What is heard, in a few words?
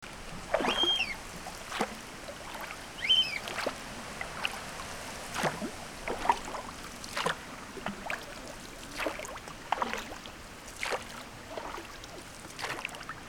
retired fisherman bark, Delta Romania; Mila23